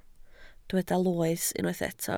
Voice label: "loes", Welsh

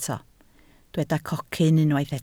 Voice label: "cocyn", Welsh

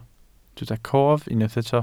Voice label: "cof", Welsh

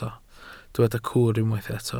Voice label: "cwr", Welsh

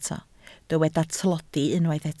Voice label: "tlodi", Welsh

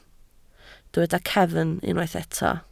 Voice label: "cefn", Welsh

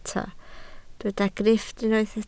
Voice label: "grifft", Welsh

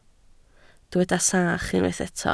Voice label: "sach", Welsh

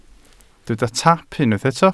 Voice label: "taap", Welsh

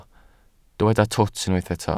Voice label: "twt", Welsh

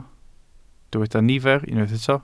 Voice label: "nifer", Welsh

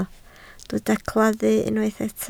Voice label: "claddu", Welsh

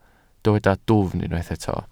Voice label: "dwfn", Welsh

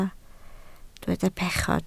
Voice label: "pechod", Welsh

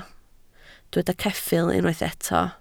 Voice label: "ceffyl", Welsh

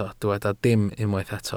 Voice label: "dim", Welsh

